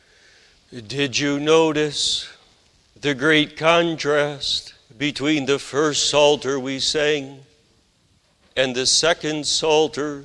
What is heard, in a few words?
Sermon; The Strong Man Overcome; luke 11 21 22